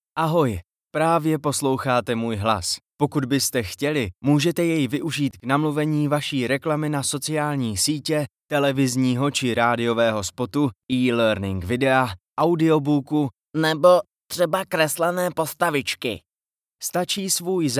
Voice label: czech narration